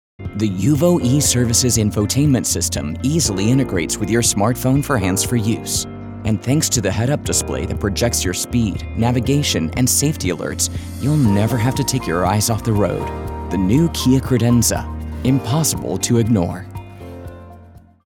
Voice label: Informative Trustworthy Reliable; Voice Over Read Styles